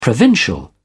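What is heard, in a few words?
"provincial", British English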